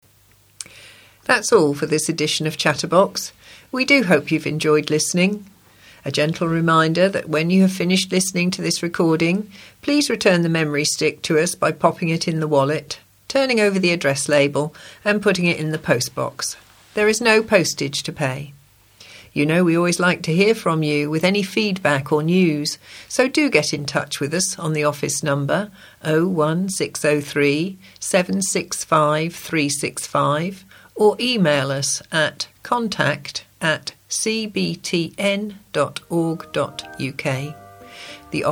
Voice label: Closing Announcement